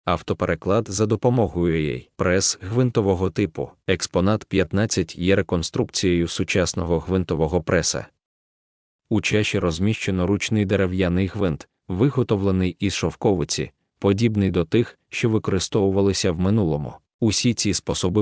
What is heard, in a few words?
ukrainian; piestirio me kochlia